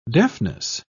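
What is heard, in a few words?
"deafness", British English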